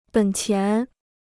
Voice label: "本钱", Chinese